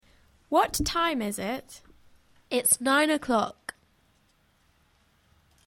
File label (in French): anglais dial